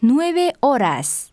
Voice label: spanish 9 hour; samsung YP-U5; Audio User Interface